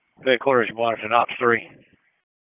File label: FIRE OPS, p25; wake